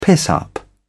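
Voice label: "piss-up", British English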